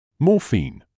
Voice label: "morphine", English

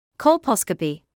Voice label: "colposcopy", English

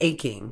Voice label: "aching", Medical English

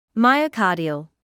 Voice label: "myocardial", medical English

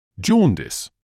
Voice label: "jaundice", English

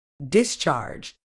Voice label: "discharge", uk

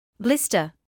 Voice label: "blister", English

